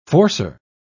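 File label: "forcer", en, uk British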